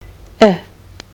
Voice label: short i, kiwi english